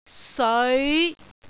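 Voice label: "seoi5", Cantonese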